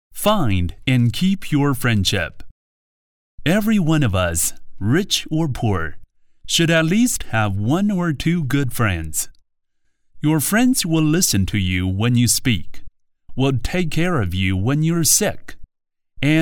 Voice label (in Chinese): 英男-英文课件-课文正文